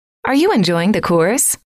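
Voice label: are you enjoying the course, female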